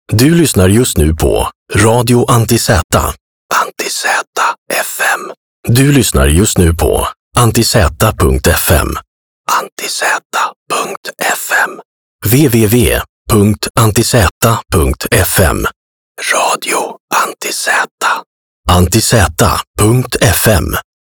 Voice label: Radio Imaging